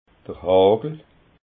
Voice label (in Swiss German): "hagel", alsace